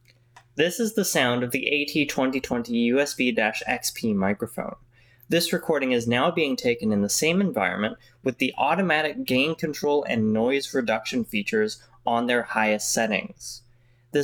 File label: Noise Reduction; AT2020USB-XP